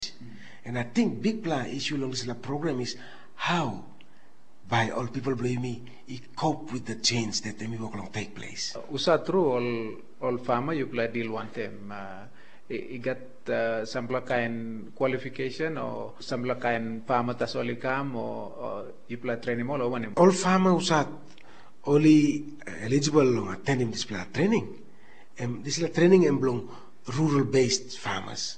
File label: Tok Pisin; Mama Graun